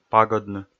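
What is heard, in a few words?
"пагӧдны", Komi-Zyrian, male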